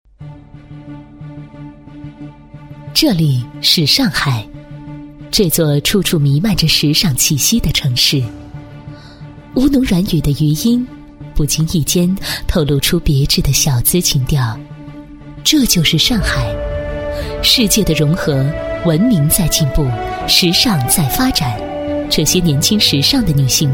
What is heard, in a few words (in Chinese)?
女4号 洋气品质, 国语女声配音; 2012国际内衣展